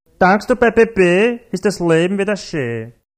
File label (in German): Wiener Dialekt